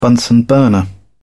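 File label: "bunsen burner", British English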